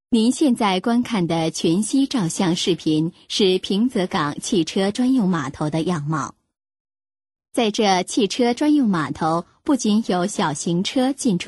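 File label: Chinese, female, various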